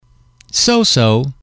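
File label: "so so", English, male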